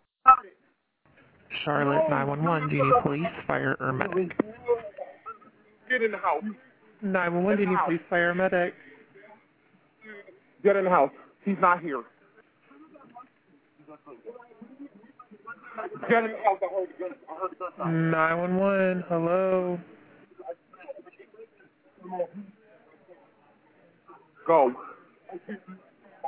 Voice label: 911 Call